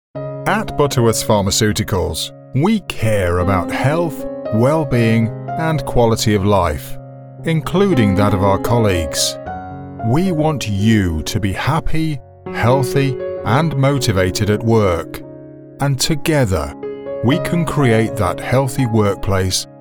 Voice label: corporate induction trainer

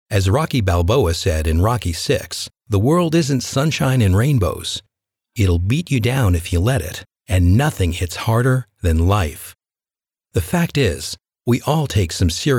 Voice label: Audiobook Sample Self Hypnosis

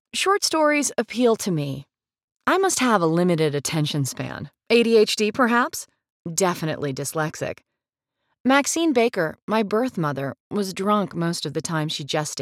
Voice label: AudioBook Selection

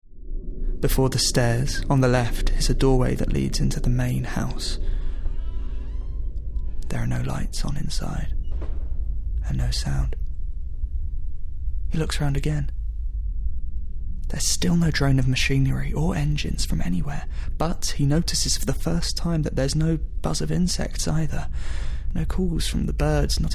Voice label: Scary Audiobook

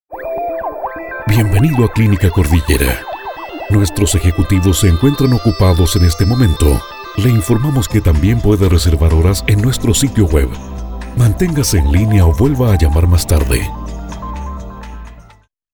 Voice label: Spanish Latin American Neutral IVR

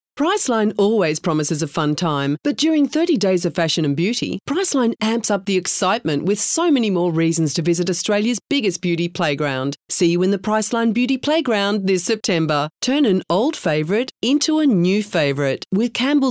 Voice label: sample of commercials

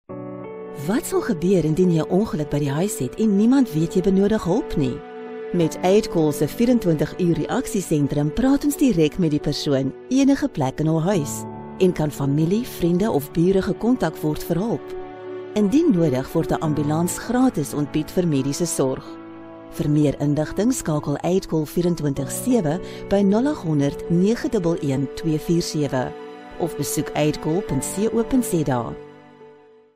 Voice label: TV Ad Afr; AidCall

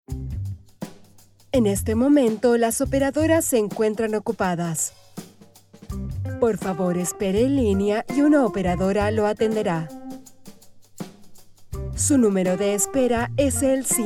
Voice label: IVR

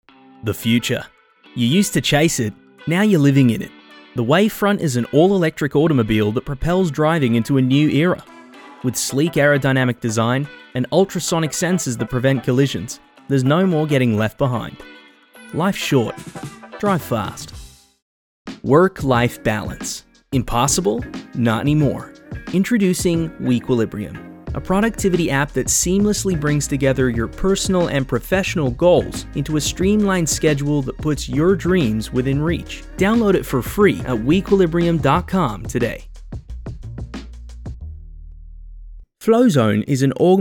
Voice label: Commercial Corporate

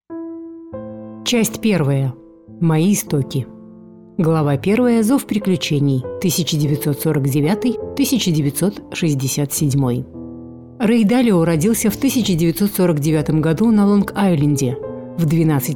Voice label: Audio Books

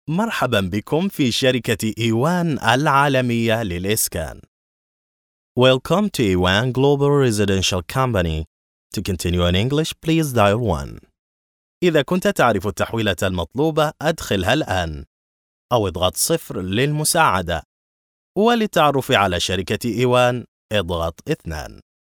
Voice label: TELEPHONE

